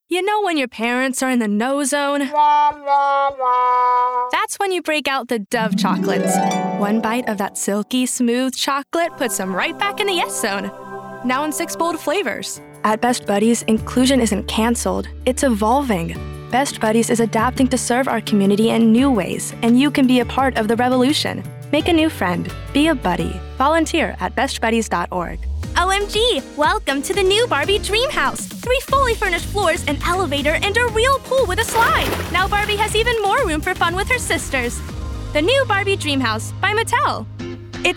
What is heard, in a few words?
Commercial